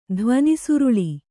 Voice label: "ಧ್ವನಿಸುರುಳಿ", kannada

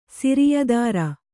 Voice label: "ಸಿರಿಯದರ", kannada

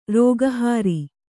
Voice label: "ರೋಗಹಾರಿ", Kannada

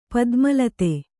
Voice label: "ಪದ್ಮಲತೆ", Kannada; padma late; noun

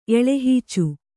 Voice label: "ಎಳೆಹೀಚು", Kannada

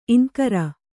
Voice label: "ಇಂಕರ", Kannada

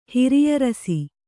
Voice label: "ಹಿರಿಯರಸಿ", kannada

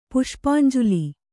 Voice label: "ಪುಷ್ಪಾಂಜಲಿ", kannada

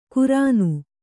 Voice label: "ಕುರಾನು", Kannada

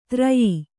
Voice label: "ತರಾಯಿ", Kannada

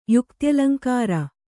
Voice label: "ಯುಕ್ತ್ಯಲಂಕಾರ", Kannada

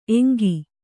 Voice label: "ಏಂಕು", Kannada

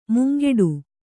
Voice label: "ಮುಂಗೆಡು", Kannada

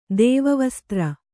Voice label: "ದೈವವಿಸೂತ್ರ", kannada

